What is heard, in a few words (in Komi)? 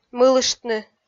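"мылыштны", Komi, female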